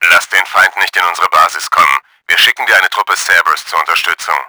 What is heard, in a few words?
ENGAGE TANKS, german; Battle Engine Aquila